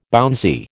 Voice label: "bouncy", American